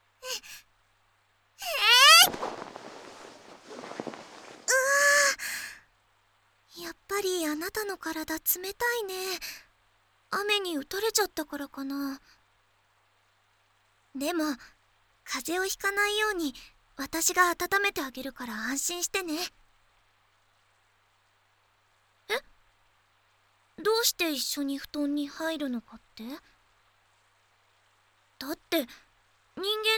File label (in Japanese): お困りの添い寝もの(Voice)